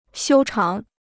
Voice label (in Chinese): "修长", Chinese